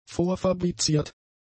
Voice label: "vorfabriziert", German; adjectives